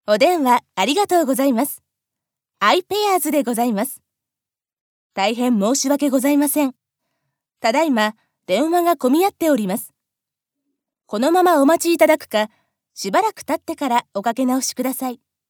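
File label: japanese female commercial; voice demos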